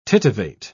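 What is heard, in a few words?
"tittivate", British English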